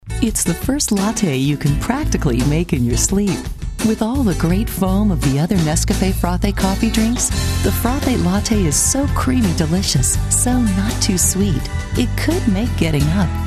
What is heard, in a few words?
Commercial